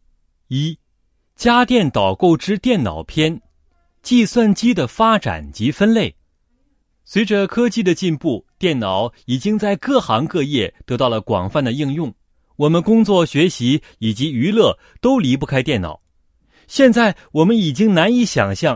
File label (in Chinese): 男 解说 家电导购; 电脑冰箱洗衣机